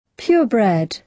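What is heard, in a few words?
"purebred", British English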